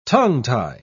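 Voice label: "tongue-tie", British English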